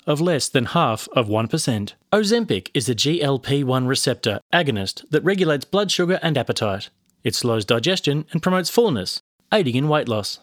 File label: Gastric Narration Medical, natural, friendly Australian accent, Natural Male Voice; OZEMPIC